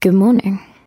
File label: good morning british woman; Voice; introductions